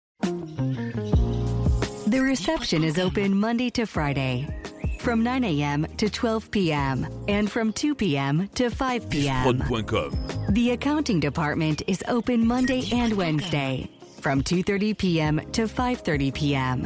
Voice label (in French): Annonce Ouverture UK Voix, telephonie svi; Nature et Botanic